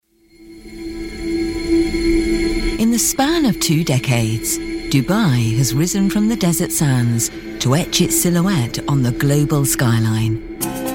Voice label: corporate narration